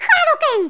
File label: "fireball", Spanish; 11khz; Speech; International